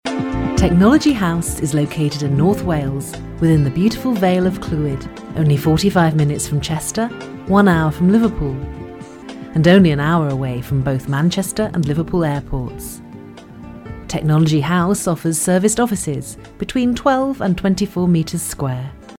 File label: locutora inglesa english voiceover